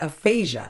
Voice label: "aphasia", Medical English